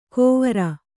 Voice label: "ಗೊವರ", Kannada